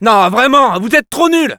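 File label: Scout jeers, French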